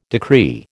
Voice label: "decree", American